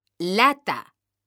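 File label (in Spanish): "LATA" Spanish, female; mekanta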